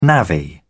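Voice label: "navvy", British English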